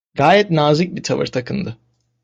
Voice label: "nazik", turkish; mozilla voice